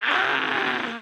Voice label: Female zombie; Project Zomboid v41.73